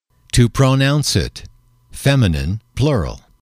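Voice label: "to pronounce it", English, male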